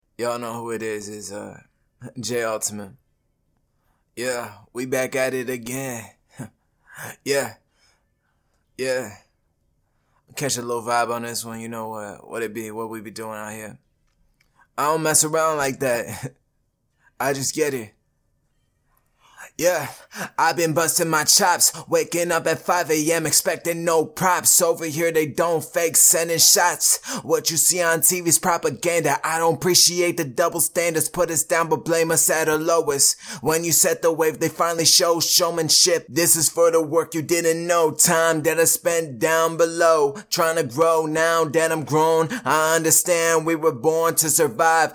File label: acapella, HH 78 Dm